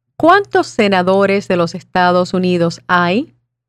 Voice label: Spanish